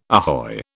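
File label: "ahoy", British English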